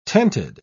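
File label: "tented", British English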